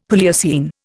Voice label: "Palaeocene", Indian; Dictionary